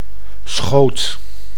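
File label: "schoot", Dutch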